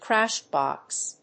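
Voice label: "Crashbox", English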